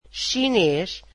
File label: portuguese Chinese